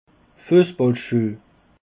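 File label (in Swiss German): "fussballschueh", Alsatian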